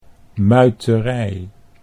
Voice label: "muiterij", Dutch

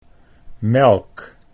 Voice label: "melk", Dutch

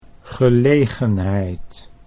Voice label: "gelegenheid", Dutch

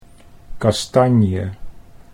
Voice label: "kastanje", Dutch

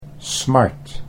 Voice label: "smart", Dutch

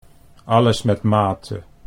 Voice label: "alles met mate", Dutch

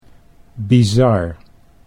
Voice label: "bizar", Dutch

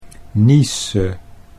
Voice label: "niese", Dutch

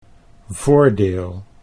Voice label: "voordeel", Dutch

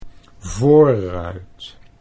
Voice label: "voorruit", Dutch

